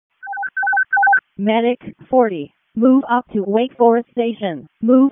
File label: EMS ALERT, p25; wake